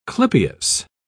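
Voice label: "clypeus", English, British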